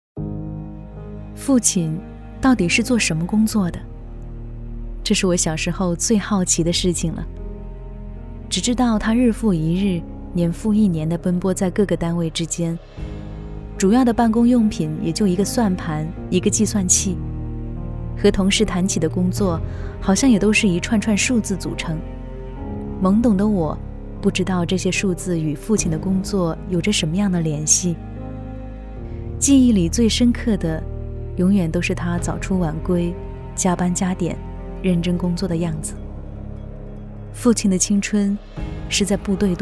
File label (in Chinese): 女 纪录片; 父亲